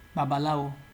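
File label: "babalawo", Nigerian Pidgin, male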